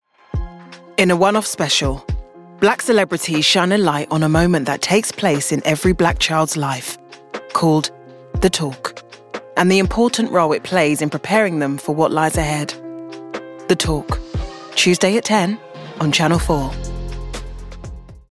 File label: promo